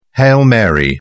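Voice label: "hail mary", British English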